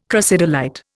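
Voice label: "crocidolite", Indian English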